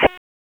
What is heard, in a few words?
Raleigh Police Northeast District Tac, p25; wake